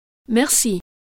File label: thank you french